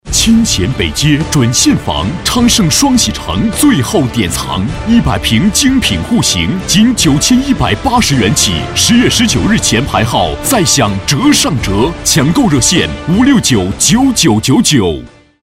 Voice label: 广告 地产 房产广告

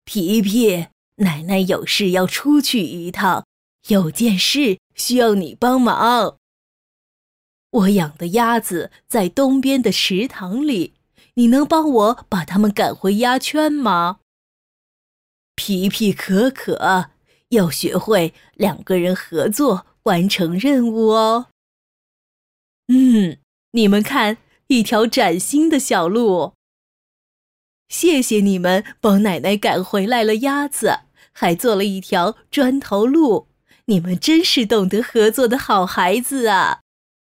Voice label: 动画 老人 奶奶音; 可可奶奶

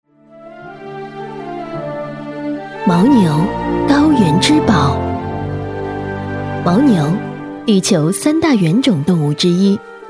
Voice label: 专题 产品 牦牛 亲切

